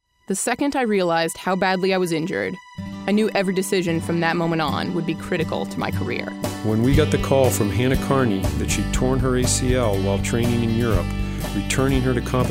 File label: Radio Spot